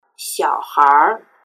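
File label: "xiaohair" Chinese word; erhua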